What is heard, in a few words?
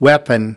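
"weapon", spoken word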